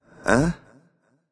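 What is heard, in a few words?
"seriously", male; smite; voicepack; olorun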